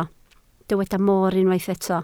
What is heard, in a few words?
"moor", Welsh